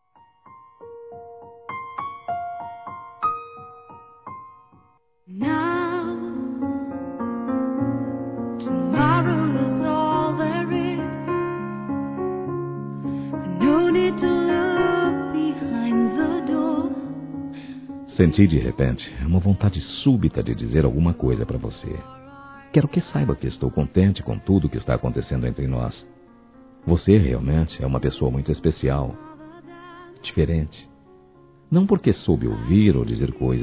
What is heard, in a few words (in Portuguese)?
Romântica Suave I Masculino, Telemensagens